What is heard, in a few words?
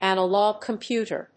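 "analog computer", English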